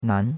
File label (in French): "nan2", chinois; Cours de Chinois